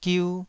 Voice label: "giu2", Taiwanese syllable

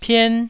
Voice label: "pien1", Taiwanese

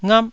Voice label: "ngap4", taiwanese syllables

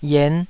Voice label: "yen5", Taiwanese

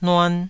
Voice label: "nuan2", Taiwanese

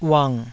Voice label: "uang3", taiwanese Syllables

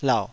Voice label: "lauh3", taiwanese syllables

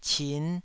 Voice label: "cin5", Taiwanese

